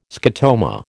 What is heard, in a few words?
"scotoma", American